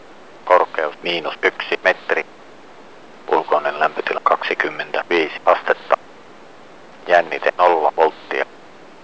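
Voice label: msg fi m, Finnish, male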